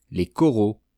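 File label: "les coraux", French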